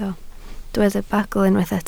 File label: "bagl", Welsh